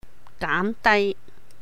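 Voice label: "gáam dài", Cantonese; reduce; 減低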